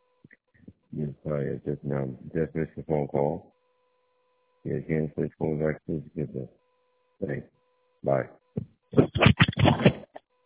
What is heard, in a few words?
voicemail